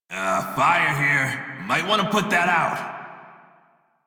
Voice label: Crew See Fire Male en; Dialog; Warframe; Railjack Crew; Red Veil